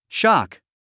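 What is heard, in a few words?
"shock", English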